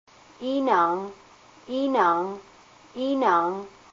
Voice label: herring, Haida language; íinaang